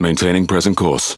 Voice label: Speech Pilots Fighter Airborne, EN GB; World Of Warships